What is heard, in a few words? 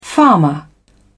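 "farmer", British English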